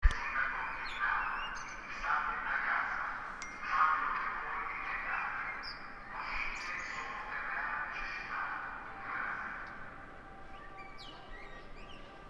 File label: stay at home ambulance message Milan